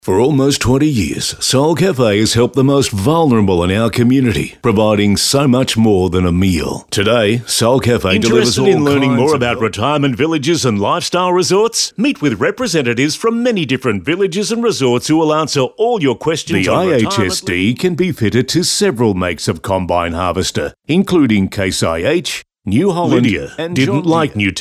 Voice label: Soft Sell